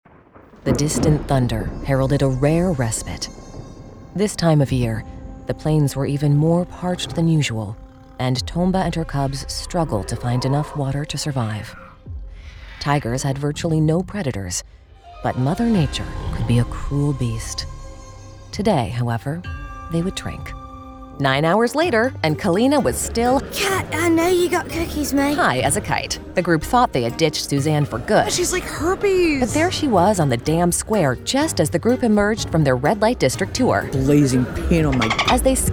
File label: tv narration